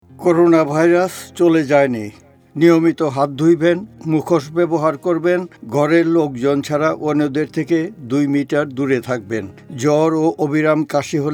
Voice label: Bengali